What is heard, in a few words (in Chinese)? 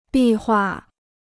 "壁画", Chinese; bì huà; mural (painting); fresco